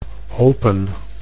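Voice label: "hopen", Dutch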